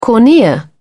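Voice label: "cornea", British English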